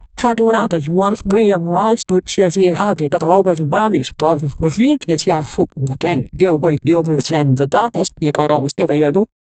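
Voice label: tv news report male anchor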